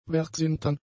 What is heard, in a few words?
"werksintern", German; adjectives